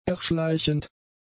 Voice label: "erschleichend", German; adjectives

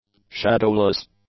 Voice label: "shadowless", English; adjectives

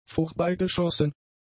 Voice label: "vorbeigeschossen", German; adjectives

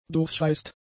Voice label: "durchreist", german; adjectives

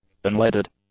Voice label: "unleaded", English; adjectives

